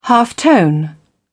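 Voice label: "halftone", British English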